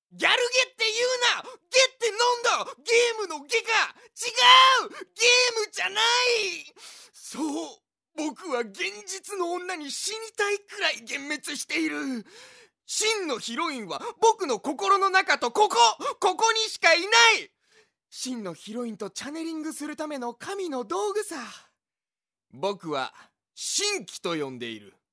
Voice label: male voice